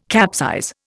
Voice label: "capsize", Indian

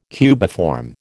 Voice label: "cubiform", American

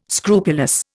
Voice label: "scrupulous", Indian

